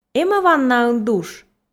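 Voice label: эм-ӧ ваннаын душ, Komi, female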